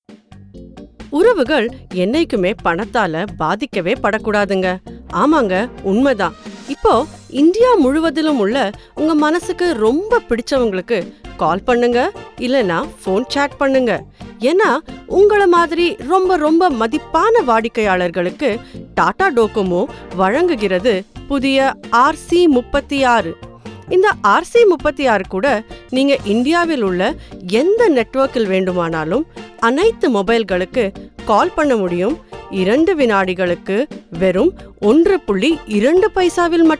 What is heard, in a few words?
IVR prompt tamil